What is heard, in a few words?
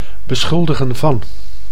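"beschuldigen van", Dutch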